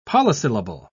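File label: "polysyllable", British English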